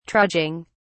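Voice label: "trudging", British English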